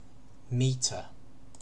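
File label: "metre", British English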